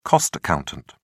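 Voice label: "cost accountant", British English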